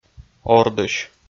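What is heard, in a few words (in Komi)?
"ордысь", Komi, male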